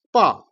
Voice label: "jian", Chinese